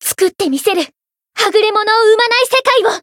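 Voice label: Voice variable chant, Japanese; tsukiha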